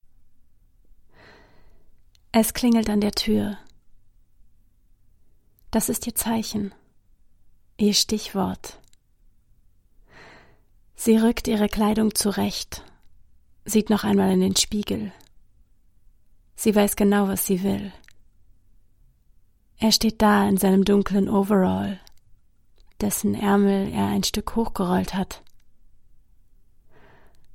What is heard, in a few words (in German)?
Arbeitsprobe Hörbuch; LOFT VOICES